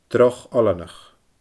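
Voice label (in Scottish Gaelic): "droch oileanach", Scottish Gaelic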